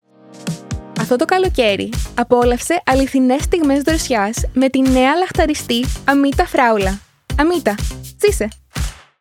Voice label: Greek

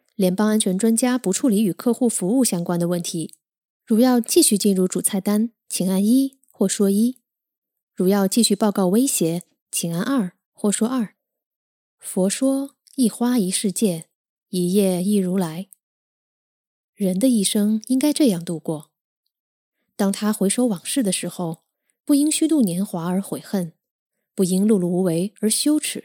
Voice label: Chinese, Chinese-Mandarin, Female, Teens-30s; voiceover

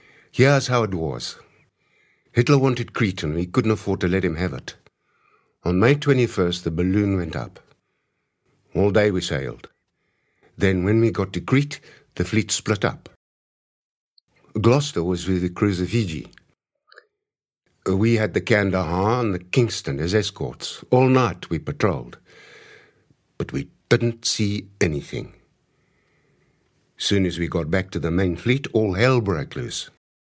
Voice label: Audiobook

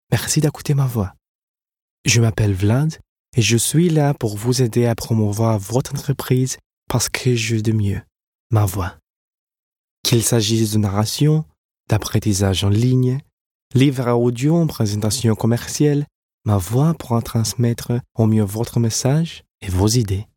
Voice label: French

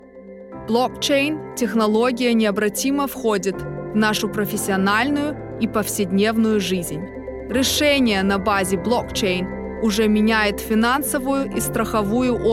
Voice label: Russian

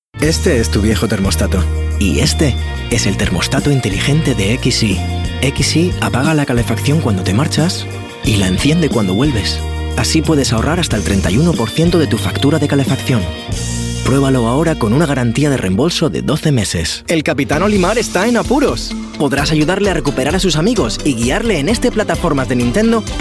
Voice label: Spanish

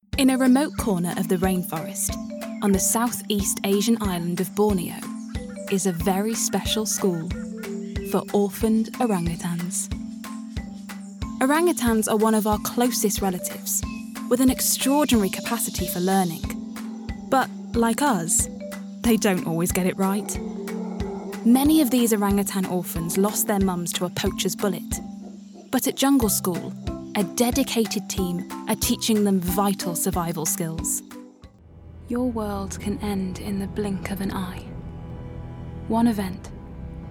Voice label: Narration

